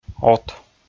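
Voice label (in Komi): "от", Komi, male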